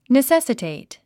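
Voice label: "necessitate", English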